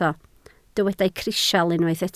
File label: "crisial", Welsh